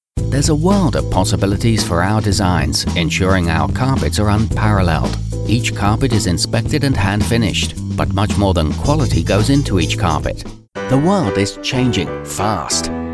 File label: Commercials Voice-over Stereo